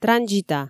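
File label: "транзита", Komi, female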